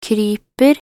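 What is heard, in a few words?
"kryper", Norwegian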